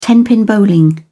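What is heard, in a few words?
"tenpin bowling", British English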